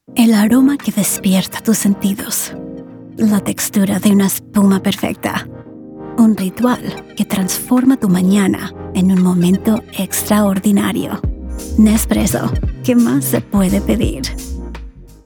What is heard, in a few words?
spot; Nespresso